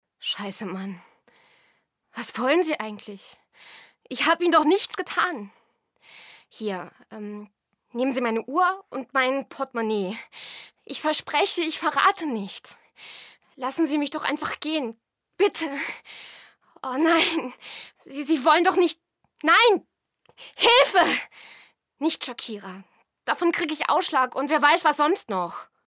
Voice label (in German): synchron